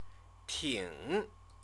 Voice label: "ting", Chinese; very, rather; adverb

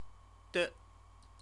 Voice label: "de", Chinese; CTCFL; University of Oxford

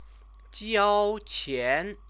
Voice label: "jiaoqian", Chinese

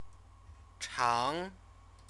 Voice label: "chang", Chinese; CTCFL; University of Oxford

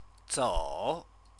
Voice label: "zou", Chinese; walk, go; verb

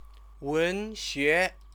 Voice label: "wenxue", Chinese

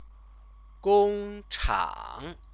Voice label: "gongchang", Chinese; 工 厂; factory; noun; Chinese lessons

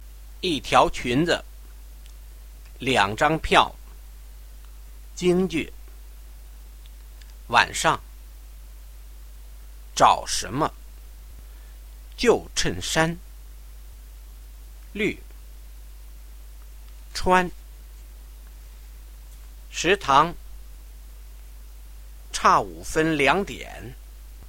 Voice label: Vocab, Reading pinyin

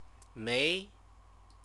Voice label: "mei", Chinese; not; adverb

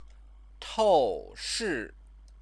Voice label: "toushi", Chinese; 透 视; have X-ray; verb; Chinese lessons

